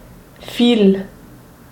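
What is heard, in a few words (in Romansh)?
"fil", Romansh Sursilvan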